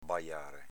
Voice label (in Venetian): "bajare", Veneto